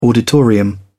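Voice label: "auditorium", British English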